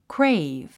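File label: "crave", English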